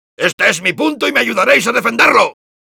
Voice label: help me defend, es; Soldier

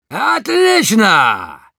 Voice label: positive vocalization, ru; Demoman

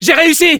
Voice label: cheers, fr

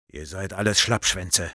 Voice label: Sniper taunts, de

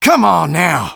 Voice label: Eng taunt

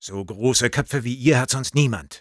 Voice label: taunts, de; Sniper

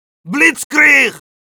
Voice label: Medic cheers, es

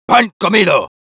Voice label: Sniper positive vocalization, es

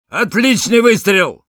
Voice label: nice shot, ru; Sniper

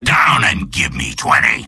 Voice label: Soldier jeers

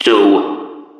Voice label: Intercom countdown

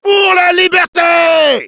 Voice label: battle cry, fr

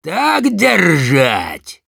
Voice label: positive vocalization, ru; Demoman

